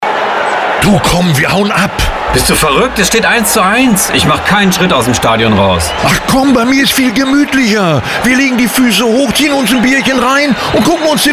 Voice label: Wohnen Radiowerbung

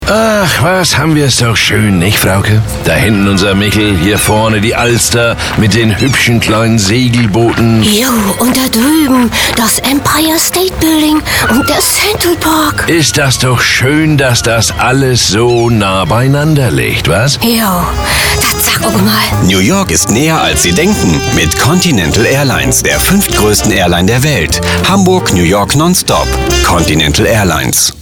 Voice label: Tourismus Radiowerbung

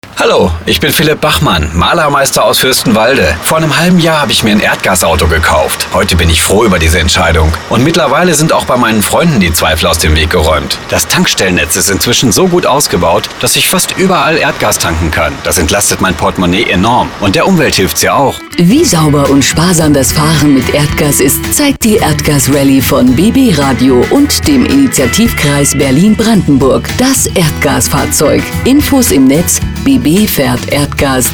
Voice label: Energie Radiowerbung

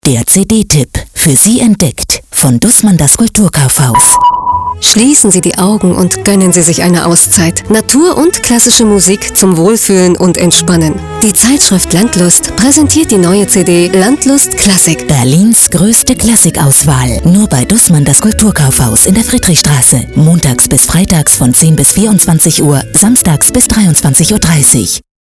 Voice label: Medien Radiowerbung

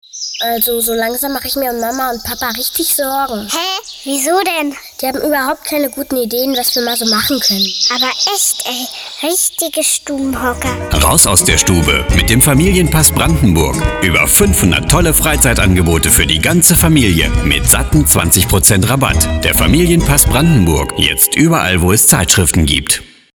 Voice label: Entertainment Radiowerbung

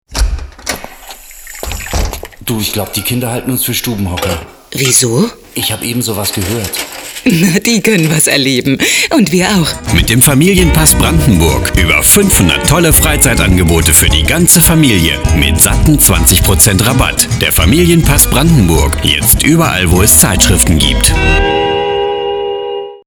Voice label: Entertainment Radiowerbung

